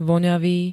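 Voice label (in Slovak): "voňavý", Slovak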